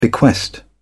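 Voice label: "bequest", English, British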